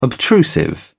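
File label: "obtrusive", British English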